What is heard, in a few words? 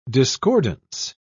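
"discordance", English, British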